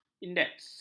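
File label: "indeks", Malay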